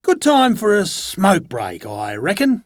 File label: Idle, en, Dialog Barks Battle; Warframe; Chipper